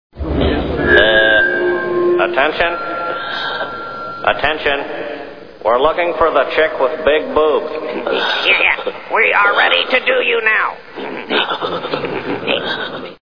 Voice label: announce